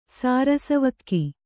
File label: "ಸಾರಸವಕ್ಕಿ", Kannada